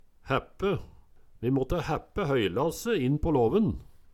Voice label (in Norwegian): "hæppe", Numedalsmål; Eksempel på bruk Vi måtte hæppe høylasse inn på låven